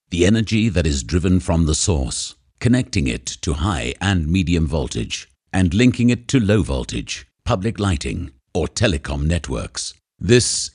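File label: English, male; lektoring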